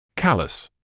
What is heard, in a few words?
"callus", medical english uk; ˈkæl.əs; KAL-uhss